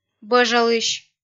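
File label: "бӧжалысь", Komi, female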